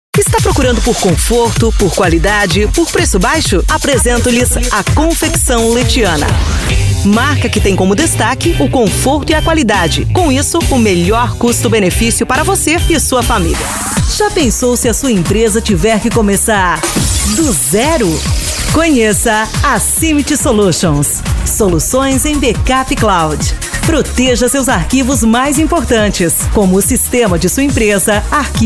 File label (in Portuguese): locutora